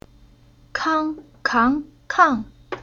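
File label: "Kang", Chinese syllable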